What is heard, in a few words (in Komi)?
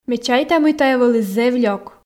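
ме чайта мый тайӧ вӧлі зэв лёк, Komi, female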